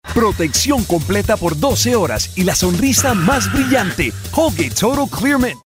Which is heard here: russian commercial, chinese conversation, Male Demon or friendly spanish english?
friendly spanish english